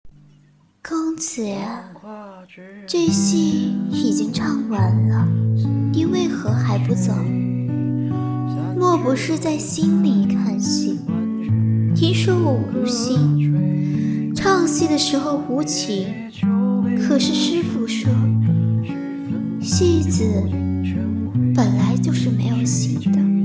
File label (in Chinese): 童话古风 戏腔